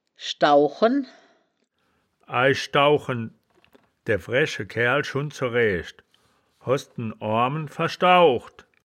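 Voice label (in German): "schdau-chen", wittlicher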